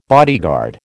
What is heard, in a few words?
"bodyguard", American